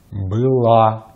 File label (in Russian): "была", Russian; easypronunciation